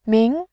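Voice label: "min", Mandarin, tone 2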